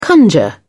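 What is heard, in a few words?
"conjure", British English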